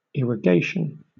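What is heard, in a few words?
"irrigation", British English; pronunciations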